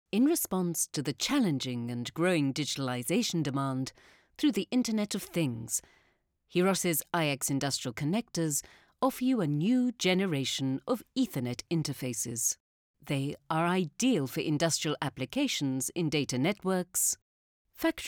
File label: foreigner